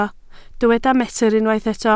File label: "metr", Welsh